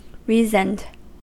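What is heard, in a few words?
"reasoned", US English; pronunciations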